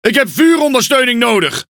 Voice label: NL Speech Quick Commands Need Support; World Of Warships